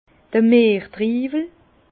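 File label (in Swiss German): "mertriiwel", Alsace Bas Rhin; vin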